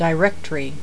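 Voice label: "directory", voices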